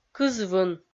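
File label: "кызвын" Komi, female; kpv audio dict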